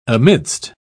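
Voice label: "amidst", English, British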